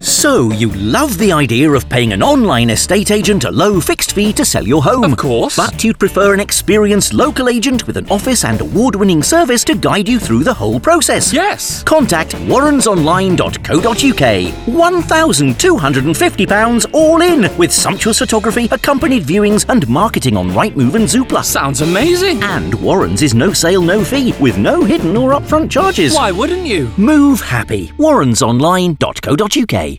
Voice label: advert